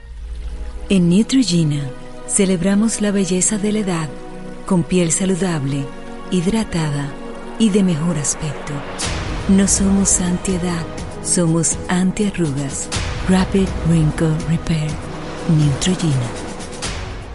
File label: female cuban neutral spanish latam commercial; devine voices